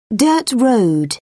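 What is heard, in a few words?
"dirt road", British English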